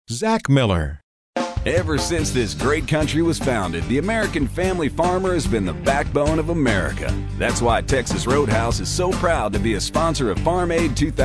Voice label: southern